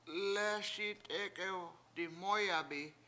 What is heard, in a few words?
lEESi tEk\$ew diMOOyabi we two ran by many, Washo